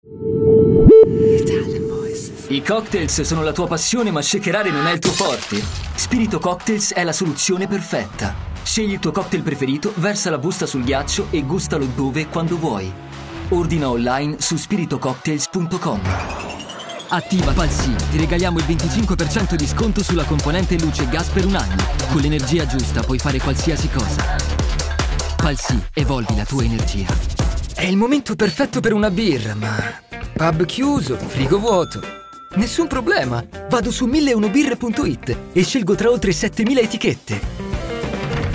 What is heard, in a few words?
ITALIAN VOICES Ads, male voices; Recent Ads